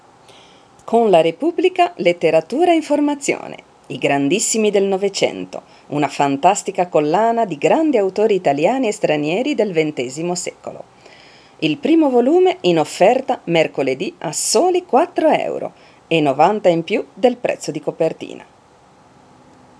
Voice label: ita; MediaVoix; vice sample